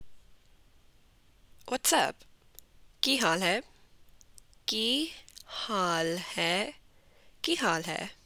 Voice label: Punjabi Whats Up

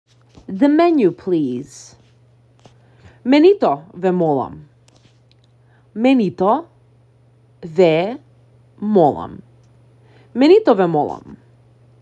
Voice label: The menu please, Macedonian; the talking macedonian phrasebook

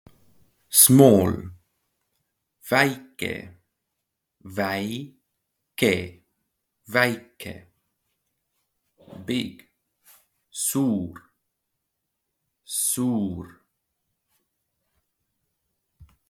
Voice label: Small big, Estonian; the talking estonian phrasebook

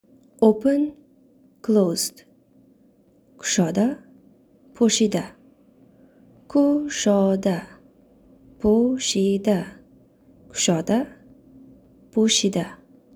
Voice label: Open Closed Tajik

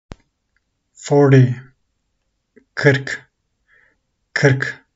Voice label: "forty 40", Turkish; the talking turkish phrasebook

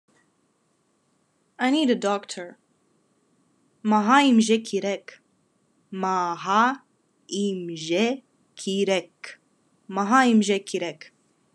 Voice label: "I need a doctor", Khakas

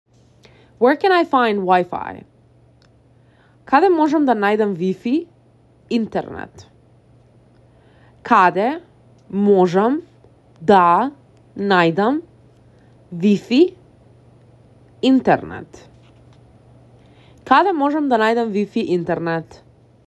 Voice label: Where can I find WI-Fi, Macedonian; the talking macedonian phrasebook

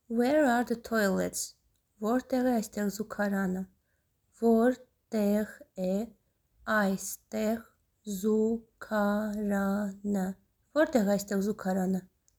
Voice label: Where are the toilets, armenian; Vortegh e aystegh zugarany

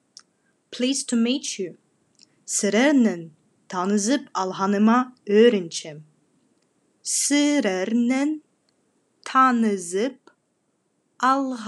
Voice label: Pleased to meet you, Khakas

